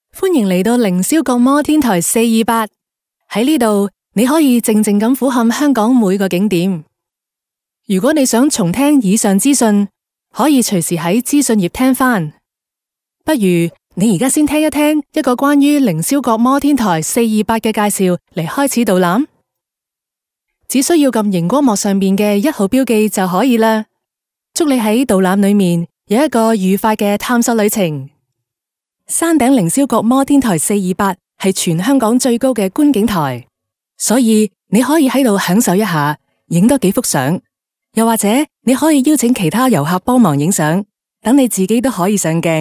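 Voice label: Cantonese female corporate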